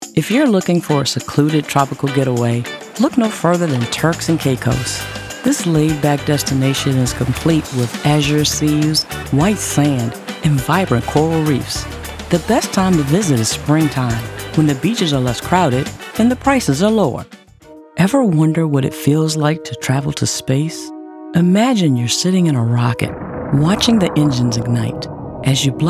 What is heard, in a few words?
Narration